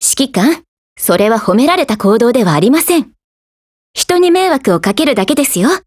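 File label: DIALOGUE, Japanese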